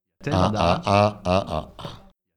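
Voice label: "A.A.A.A.A.A.", French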